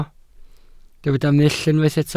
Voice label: "mull", Welsh